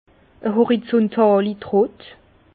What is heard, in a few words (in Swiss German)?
"horizuntali", Bas Rhin; vin; pressoir horizontal